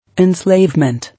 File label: "Enslavement", English